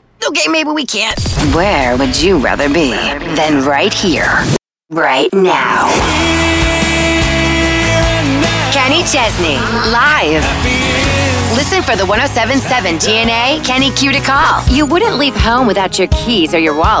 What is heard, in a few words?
radio imaging women country